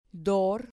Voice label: "dor", Spanish